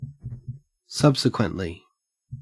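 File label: "subsequently", Australian English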